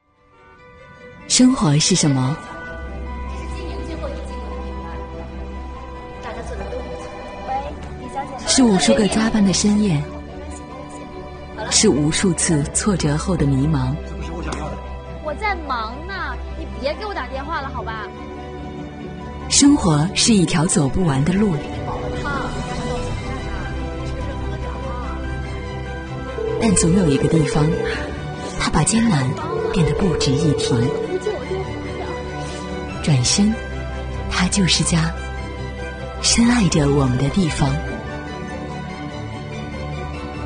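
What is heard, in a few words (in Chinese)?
女-微电影【亲情爱生活】; 民生银行; 温柔知性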